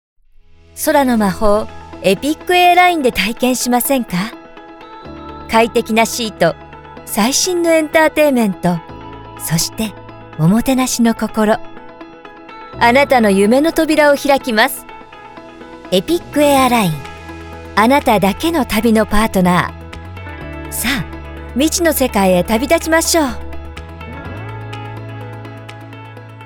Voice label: Japanese TVC

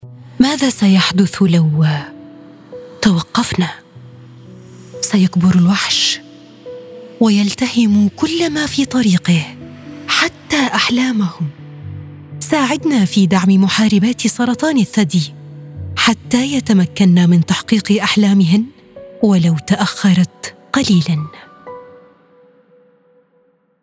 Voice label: MSA Egyptian Narration AD, voiceover

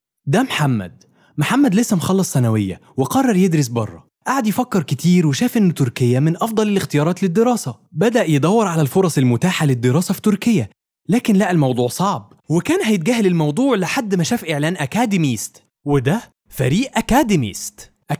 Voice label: Egyptian Commercial Sample

